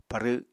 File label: "parw", Apatani